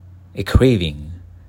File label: Voice Over